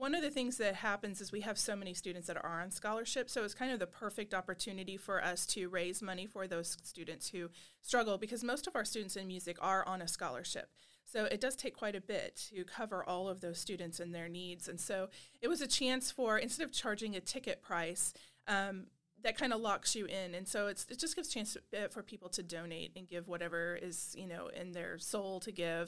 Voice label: Interview; faculty and friends music recital